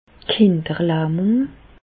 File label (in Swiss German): "kinderlahmung", Bas Rhin; medecine; polyomyélite